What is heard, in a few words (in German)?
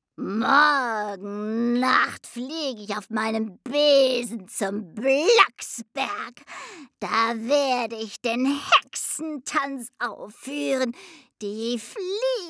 trickstimme hexe